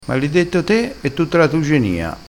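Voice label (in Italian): "genia", vocabolario dialettale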